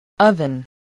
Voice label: "oven", English voice

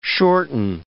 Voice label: "shorten", English

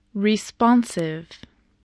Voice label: "responsive", English

